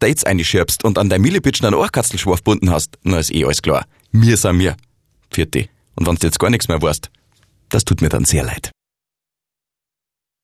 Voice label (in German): Dialekt Bayerisch